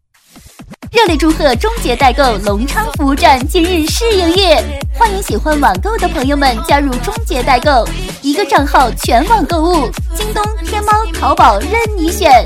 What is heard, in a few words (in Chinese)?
女 促销代购音激情; 实惠甜美